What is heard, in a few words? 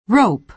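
"rope", American English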